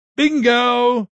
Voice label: "Bingo", Male; Talking; VoiceBox